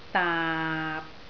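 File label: "daap6", Cantonese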